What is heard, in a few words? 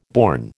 "born", American